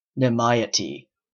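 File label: "nimiety", Canadian English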